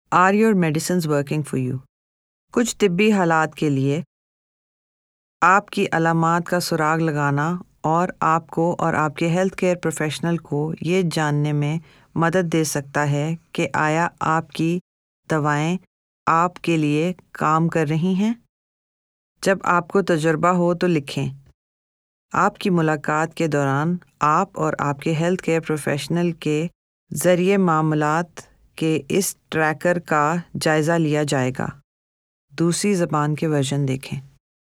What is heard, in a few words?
Symptom tracker Urdu